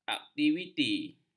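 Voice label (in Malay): "aktiviti", Malay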